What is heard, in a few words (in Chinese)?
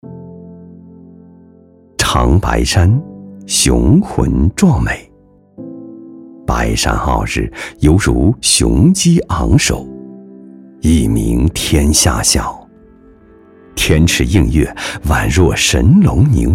纪录片; 长白山